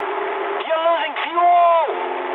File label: eng tank damaged mood high, speech eng; War Thunder